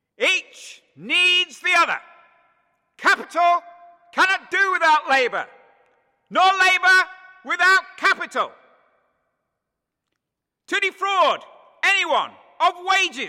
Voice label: Town Crier